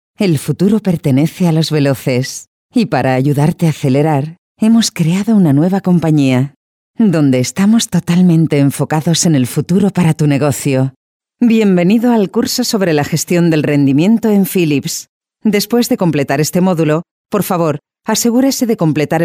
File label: spanish narration